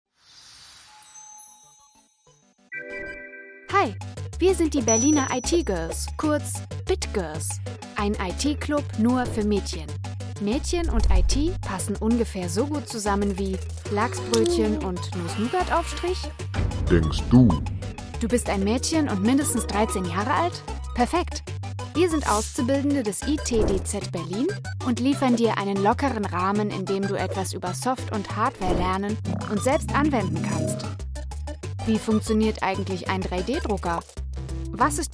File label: german promos